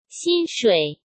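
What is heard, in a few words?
"薪水", Mandarin Chinese; hsk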